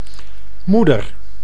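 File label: "moeder", Dutch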